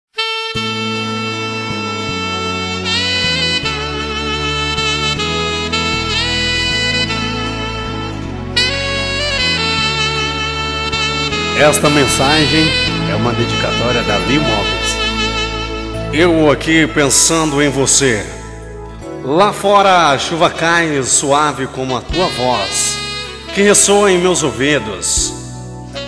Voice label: msg locutor

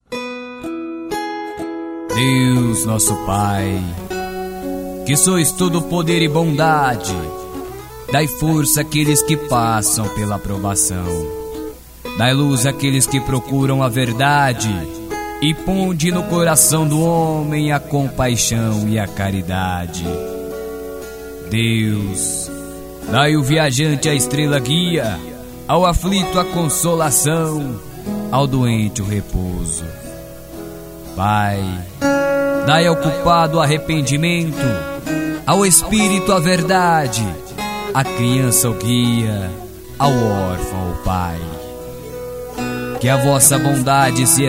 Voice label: locutor, prece; prece de caritas

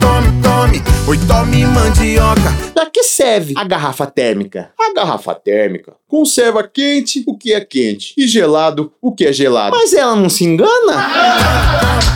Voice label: spot piada; rei da mandioca

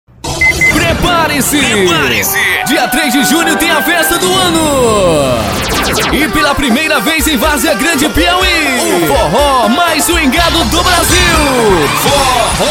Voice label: forro comercial spot vinheta propaganda; varzea grande pi

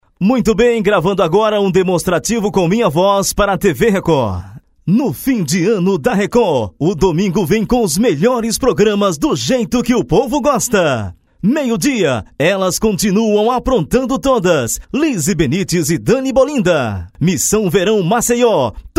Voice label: locutor tv; studio nativa; tv record

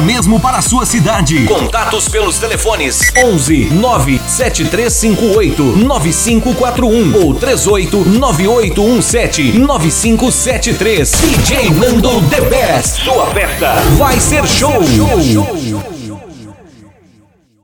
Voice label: chamada de eventos

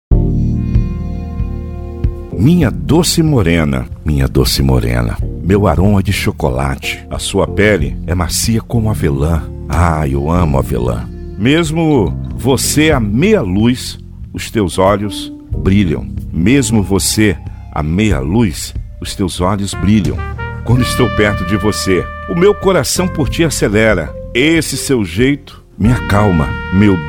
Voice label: poema cafeteria

